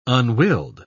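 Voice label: "unwilled", English, British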